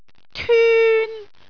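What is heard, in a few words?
"tyun1", Cantonese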